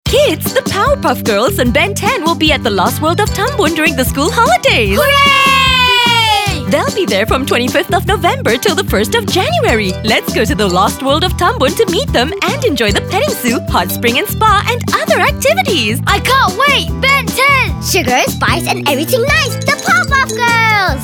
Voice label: ENG; LOST WORLD; POW HOLIDAY 2017